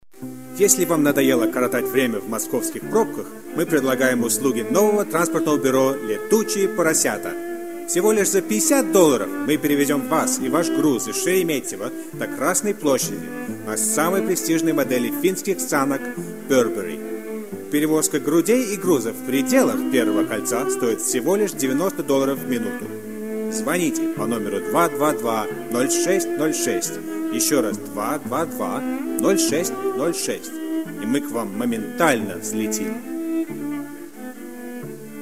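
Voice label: russian male voice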